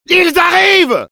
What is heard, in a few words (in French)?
incoming fr; "Ils arrivent!"; Demoman